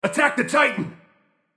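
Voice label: vox attack, male; smite; susano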